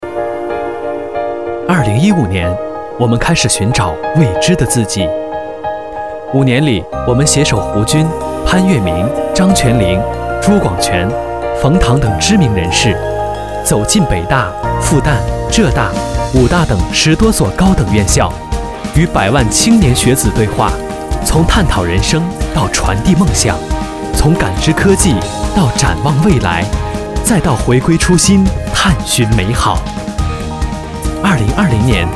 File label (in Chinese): 男 专题